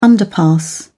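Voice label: "underpass", English, British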